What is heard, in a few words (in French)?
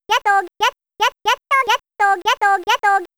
Voix ange, son cartoonesque; Goblins 3; Les « pou pou pi dou ba » (variante exclusivement féminime du « pou que pi peï bi doum »)